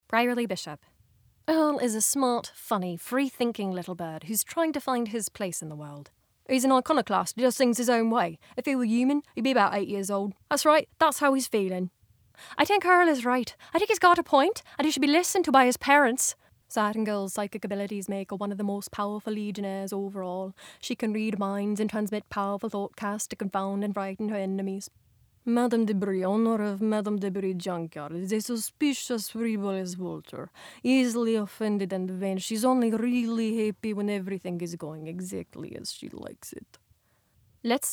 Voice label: Female Accents